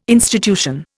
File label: "institution", Indian